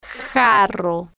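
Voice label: "jarro", slow, Spanish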